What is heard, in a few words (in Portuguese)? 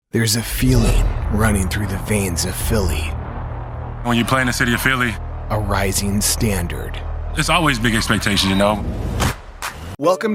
Ingles; locutores nativos